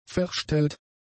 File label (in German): "verstellt", German; adjectives